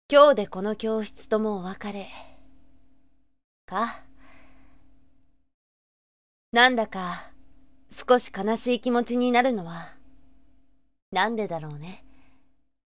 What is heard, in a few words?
sample boy